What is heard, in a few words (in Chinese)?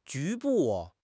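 "局部", Chinese; HSK6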